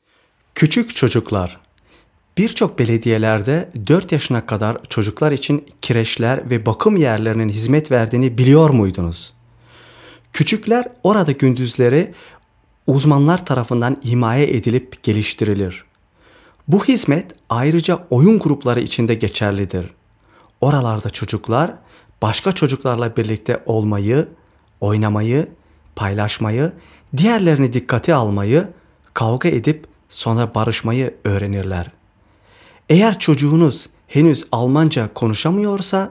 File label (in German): tuerk